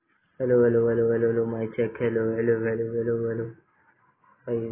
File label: Voice Note